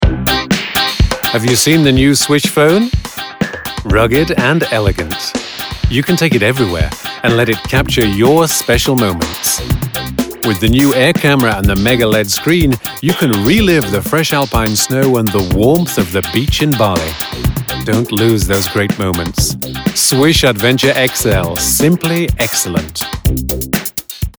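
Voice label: UK commercial fresh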